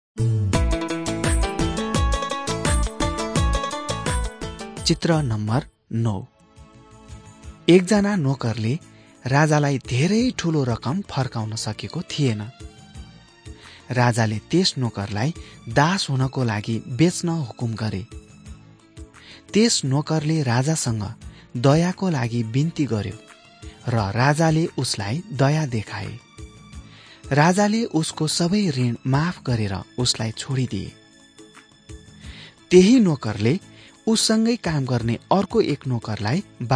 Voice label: jesus teacher and healer nepali

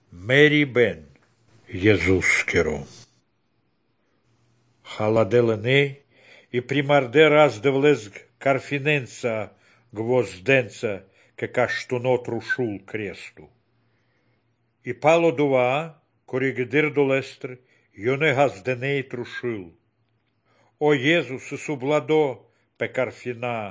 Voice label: good news baltic romani; visagalis dievo zmogus; romani baltic nvs nt drama

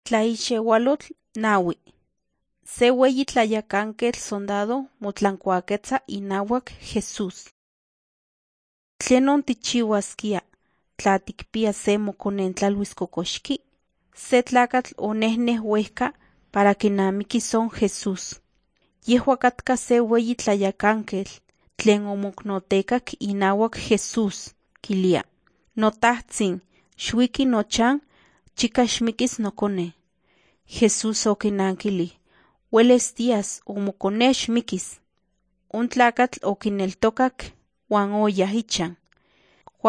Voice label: nahuatl guerrero tlapa; the bible league nt drama

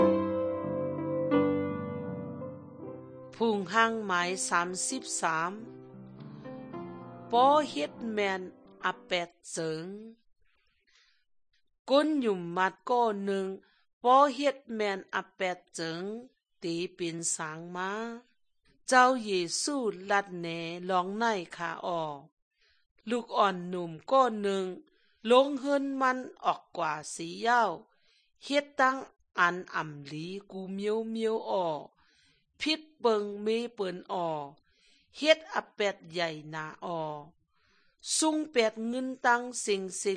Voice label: good news shan eastern, drama; bible; shan thailand bible society nt drama

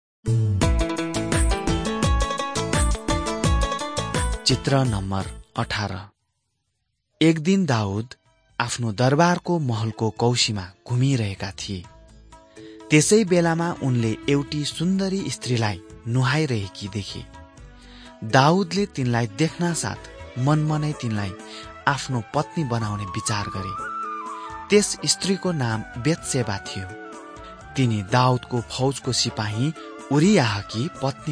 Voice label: servants of god nepali

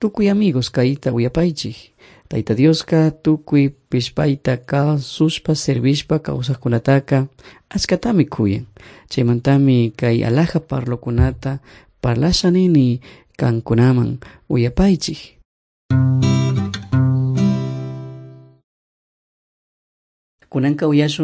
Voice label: servants of god quichua chimborazo

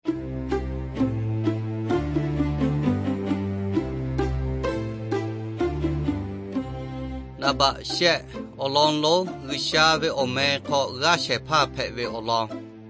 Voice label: victory through god lahu na, nt drama

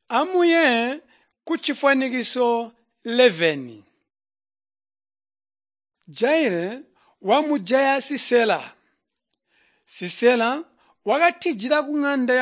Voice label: tonga plateau, drama; chitonga bsz nt drama

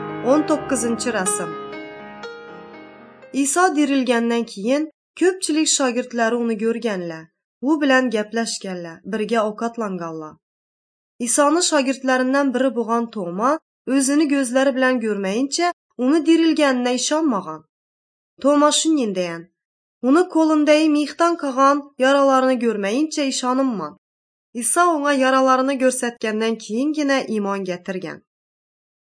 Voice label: uzbek northern kipchak; good news

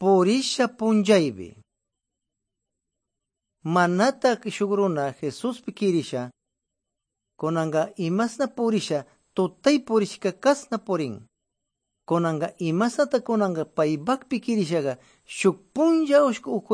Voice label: good news quichua de pastaza

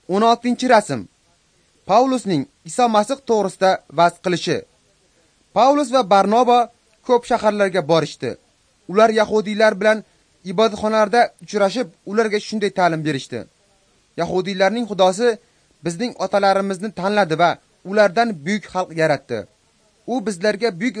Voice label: uzbek northern; acts of the holy spirit

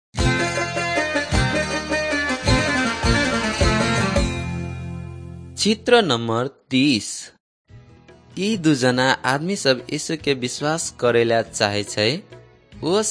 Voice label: maithili thetiya

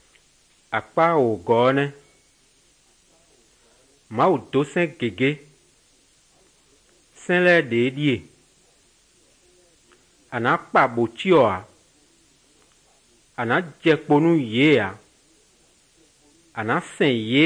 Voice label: good news, fon fongbe bible drama

